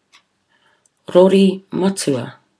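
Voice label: "rori matua", Maori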